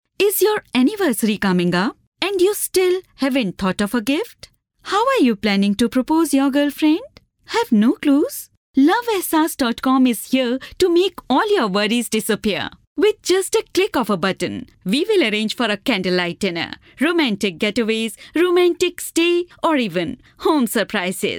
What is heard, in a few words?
female indian voice over sales